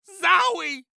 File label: Cartoon Voice "Zowy"; AdobeCC